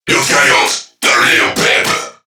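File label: Cover Me Crewmen, English; Dialog; Barks; Battle; Warframe; CorpusCrewmen; CrewmanA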